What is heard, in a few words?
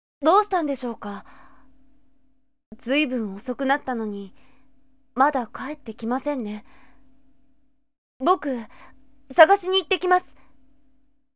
sample boy